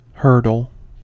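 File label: "hurdle", US English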